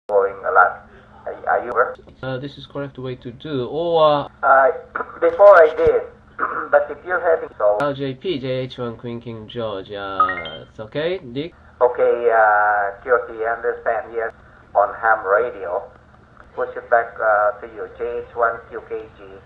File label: echolink